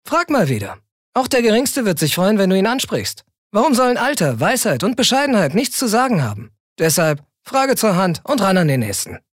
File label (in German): Werbung Dynamisch